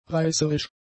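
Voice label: "reißerisch", German; adjectives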